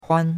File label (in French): "huan1", chinois; Cours-de-Chinois